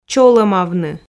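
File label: "чоломавны", Komi, female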